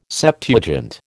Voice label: "Septuagint", American

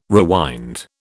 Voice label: "rewind", British

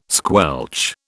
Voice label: "squelch", British

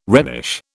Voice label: "Rhenish", British

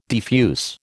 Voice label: "defuse", American

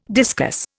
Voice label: "discus", Indian

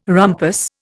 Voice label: "rumpus", Indian

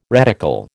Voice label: "reticle", American

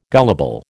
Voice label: "gullible", American

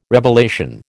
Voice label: "revelation", American

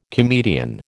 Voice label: "comedian", American

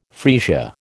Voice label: "freesia", American

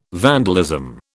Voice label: "vandalism", British

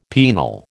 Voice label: "penal", American

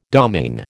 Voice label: "domaine", American

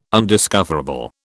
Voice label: undiscoverable, British

